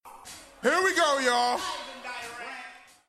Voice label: Vocals ('here we go, yo')